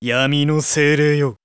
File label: voice magic dark